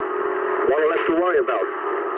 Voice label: eng player killed enemy mood low, speech eng